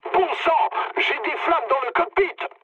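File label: French on fire mood low; War Thunder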